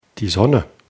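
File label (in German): "sonne", German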